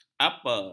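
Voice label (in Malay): "apa", Malay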